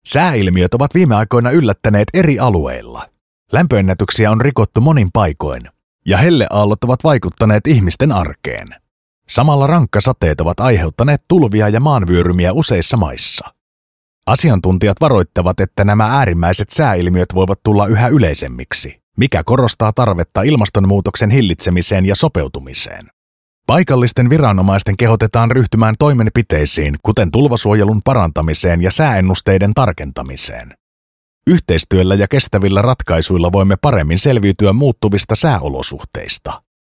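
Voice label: Finnish, News; voice demos; GoLocalise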